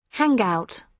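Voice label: "hangout", British English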